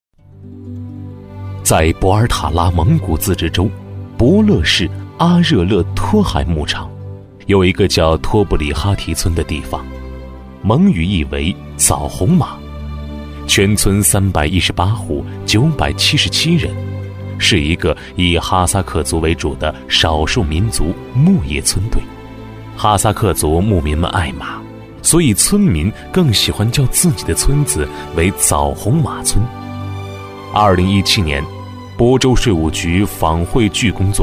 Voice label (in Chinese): 男 专题配音 磁性质感; 奔向幸福的'枣红马'; 抑扬顿挫